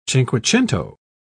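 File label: "cinquecento", British English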